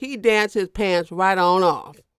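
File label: dialog, female spoken; ILIO; Vocal Planet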